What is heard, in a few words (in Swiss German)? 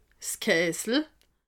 "kaasel", alsace